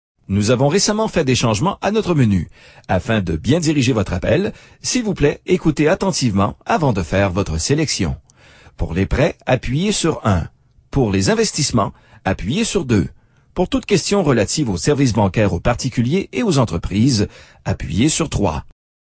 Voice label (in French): french